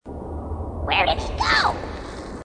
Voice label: grunt; h2dialogue; grunt crazy